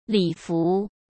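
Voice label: "礼服", Mandarin Chinese; chinese-flashcards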